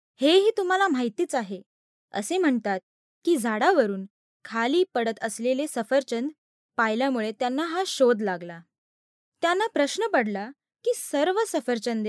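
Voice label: Marathi India, female, voice over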